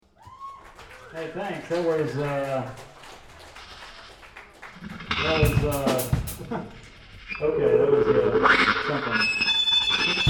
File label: banter; One World - December 2 2000